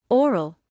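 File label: "oral", British English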